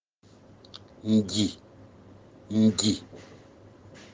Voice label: "Ngui", palabra fang

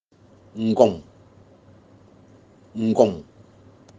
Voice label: "ngoom", palabra fang

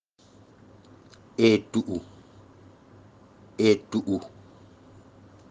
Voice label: "etugu", palabra fang

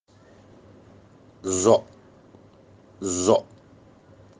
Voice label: "nsok", palabra fang

